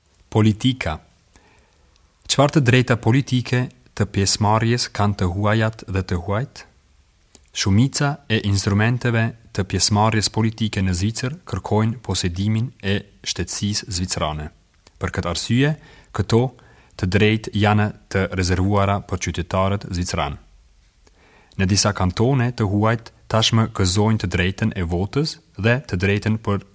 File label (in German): albanisch; politik